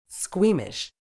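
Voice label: "squeamish", British English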